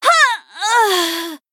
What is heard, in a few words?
Female Blood Elf Death